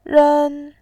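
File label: "ren", Mandarin Chinese, first tone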